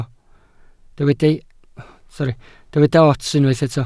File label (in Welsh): "ots", Welsh